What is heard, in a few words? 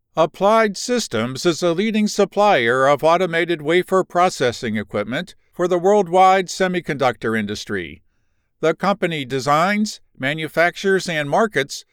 explainer